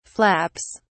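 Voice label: "flaps", British English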